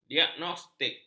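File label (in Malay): "diagnostik", Malay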